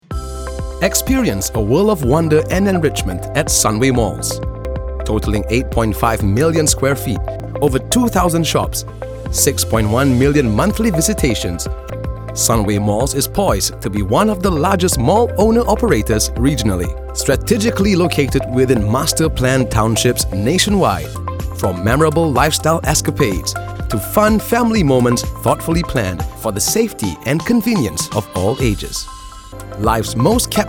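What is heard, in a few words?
English; COPORATE; SUNWAY MALLS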